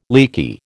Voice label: "leaky", American